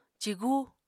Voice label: "cigu", Apatani

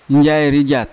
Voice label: injaya rija younger father, Yawa

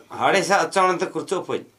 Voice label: Gta Birds kingfisher, Dialect: Hill; Alcedo atthis, kingfisher; Tikarpoda

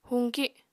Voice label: "huñki", Apatani

